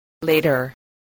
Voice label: "later", English